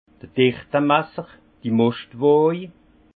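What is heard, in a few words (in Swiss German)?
"dichte masser", Haut Rhin; vin; densimètre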